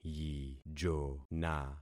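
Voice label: "yijona", concatenated syllables; Affixing experiment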